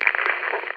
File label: talk, telephone